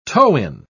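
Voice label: "toe-in", British English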